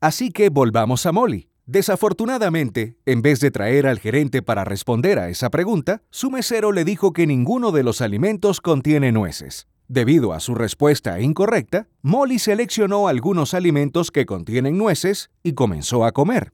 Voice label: NARRATOR